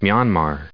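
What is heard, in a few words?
"Myanmar", US English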